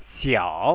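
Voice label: "xiao" Chinese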